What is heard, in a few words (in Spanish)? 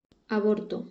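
"aborto", Spanish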